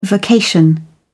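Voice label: "vocation", British English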